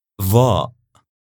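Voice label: "Dhaa" Arabic, male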